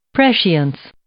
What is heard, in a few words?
"prescience", English